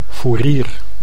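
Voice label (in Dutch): "foerier", Dutch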